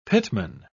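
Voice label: "pitman", British English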